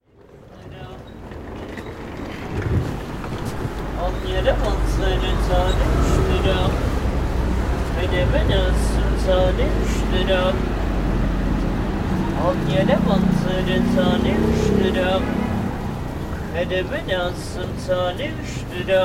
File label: street vendor Osmanbey